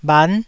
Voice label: "bhan9", taiwanese